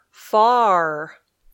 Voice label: "far", English